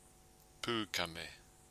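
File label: "pukame", Māori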